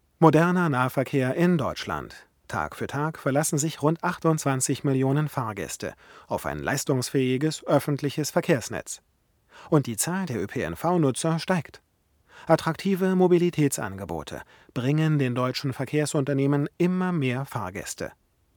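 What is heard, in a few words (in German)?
mk41 unbearbeitet, Sprecherraum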